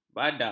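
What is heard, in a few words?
"bada", Malay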